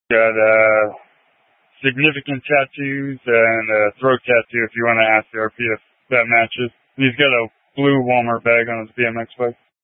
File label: Police Dispatch; Police 1 Dispatch